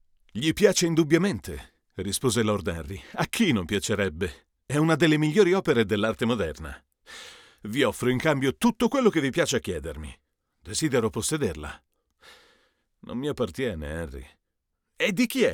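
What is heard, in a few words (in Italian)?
Sennheiser MK4 per confronto U87ai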